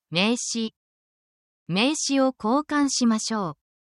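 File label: Japanese word, japanese phrases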